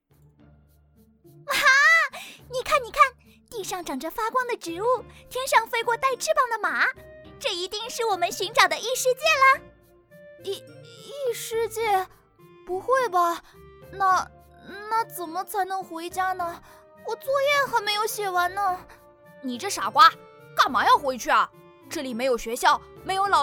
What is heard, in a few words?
foreigner; 5人の子供たち（中国語）